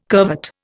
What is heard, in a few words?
"curvet", Indian